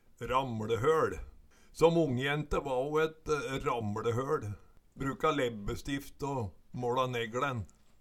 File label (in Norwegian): ramLehoL, Numedalsmål